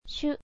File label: "shu", kana